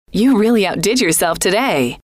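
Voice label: you Really Out Did Yourself Today, female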